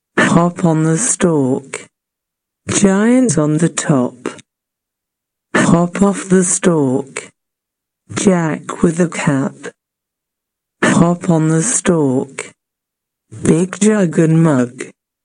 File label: Slow